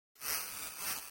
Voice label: Voice Power Up!; Altered Beast; Genesis